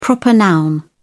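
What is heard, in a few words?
"proper noun", British English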